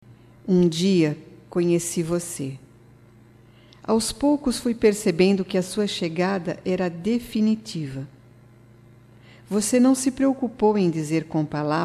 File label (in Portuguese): AGRADECIMENTO NEUTRO - F, Só Voz; fem; Telemensagens 2017